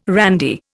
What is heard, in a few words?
"randy", Indian